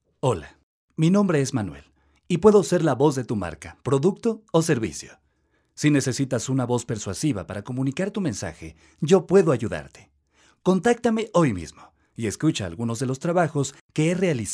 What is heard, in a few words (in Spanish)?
Locutor